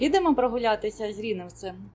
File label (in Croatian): ukrajinski; POP UP Zagreb